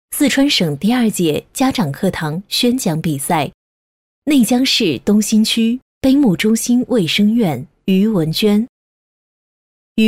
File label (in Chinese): 女 专题 人物 卫生院; 椑木中心卫生院